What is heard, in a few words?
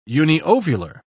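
"uniovular", British English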